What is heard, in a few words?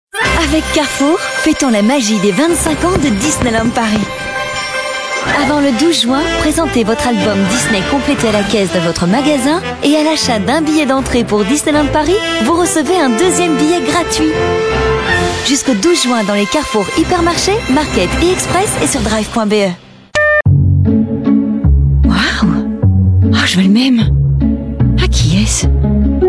french voice over female commercial